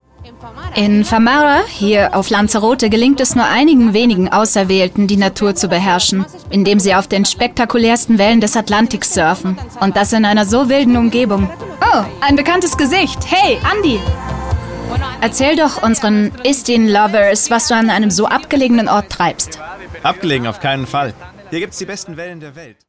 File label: Imagefilm Voice Over